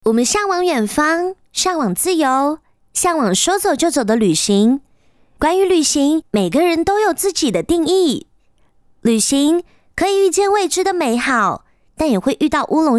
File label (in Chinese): 台湾腔; 旅行箱